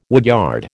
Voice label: "woodyard", American

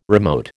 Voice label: "remote", American

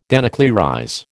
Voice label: "denuclearize", American

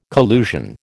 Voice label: "collusion", American

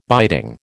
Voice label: "biting", American

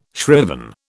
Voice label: "shriven", British

